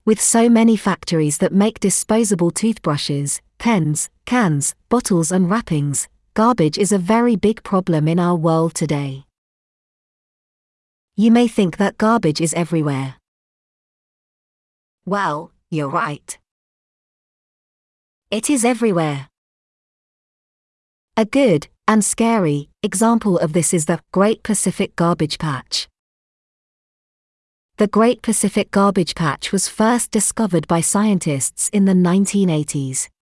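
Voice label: British; ocean of garbage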